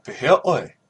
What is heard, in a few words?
how are you, hawaiian